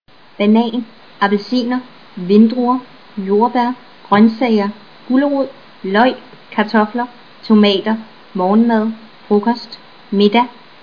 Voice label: danish; food